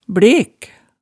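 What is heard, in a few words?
"blek", Hemsedal dialect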